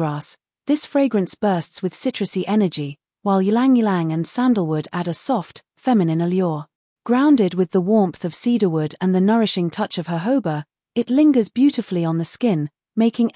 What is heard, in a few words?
Female Perfume Spray; Ixia Summer Fling; Ixia Court